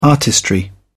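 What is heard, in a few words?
"artistry", English, British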